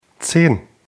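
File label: "zehn", German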